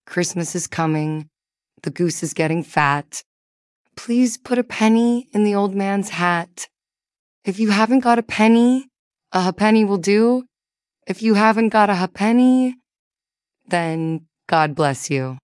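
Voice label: female US; Christmas is Coming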